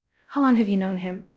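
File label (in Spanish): Voice Acting, es, female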